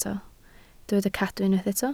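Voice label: "cadw" Welsh, spoken word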